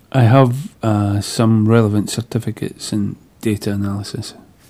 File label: interviewee male